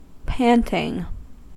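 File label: "panting", American English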